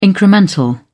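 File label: "incremental", English, British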